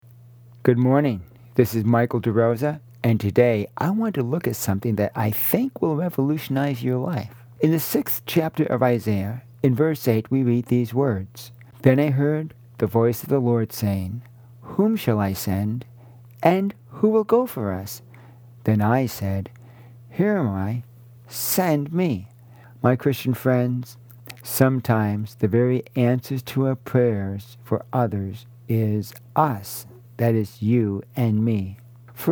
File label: Radio Sermonette; Sending; Isaiah 6 verse 8; Commissioning